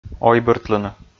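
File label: "ойбыртлыны", Komi, male; kpv audio dict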